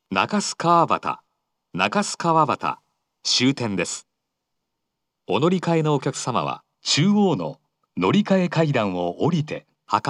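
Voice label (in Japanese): 到着-回送列車, 2番のりば放送; 福岡市地下鉄 空港線・箱崎線 中洲川端駅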